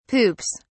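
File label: "poops", British English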